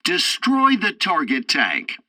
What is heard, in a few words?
tutorial tank arcade fourth target shells, english; War Thunder